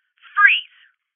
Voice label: Voices Freeze Female